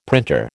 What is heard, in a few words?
"printer", American